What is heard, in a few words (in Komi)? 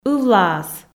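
"ывлаас", Komi, female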